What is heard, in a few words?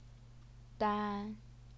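"dan", first tone, Chinese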